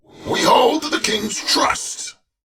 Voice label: Patrol, en; Warframe; Battle; Dax; DaxKnight